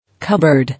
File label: "Cupboard", English